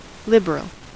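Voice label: "liberal", US English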